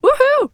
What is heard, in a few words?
Emote Female Happy; Emotes